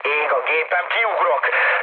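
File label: on fire, mood med, Hungarian; War Thunder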